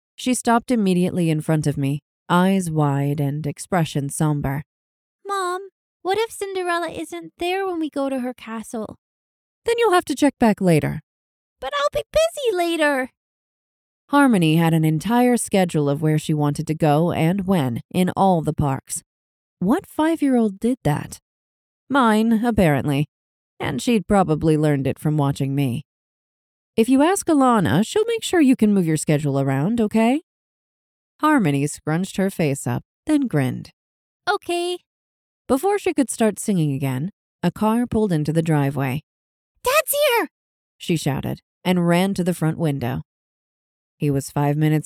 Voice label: mom, narrators